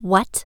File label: "what", female human voice girl; princess hero vocal